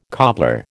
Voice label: "cobbler", American